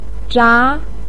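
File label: "zha2", Mandarin Chinese pinyin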